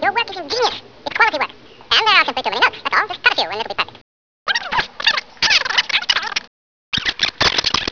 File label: chipmunks